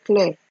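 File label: "clear", Nigerian Pidgin, female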